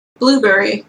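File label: "blueberry", English, Canadian accent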